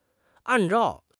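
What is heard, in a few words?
"an4 zhao4", Mandarin Chinese; HSK4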